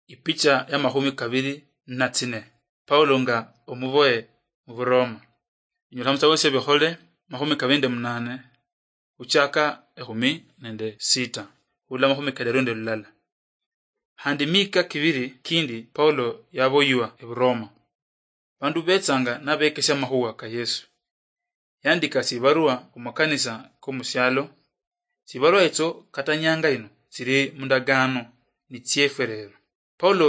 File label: acts of the holy spirit luyia marachi, drama